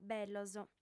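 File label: "bellos", female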